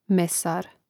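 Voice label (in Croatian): "mesar", Croatian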